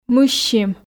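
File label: "мыссим", Komi, female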